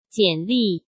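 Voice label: "简历", Mandarin Chinese; hsk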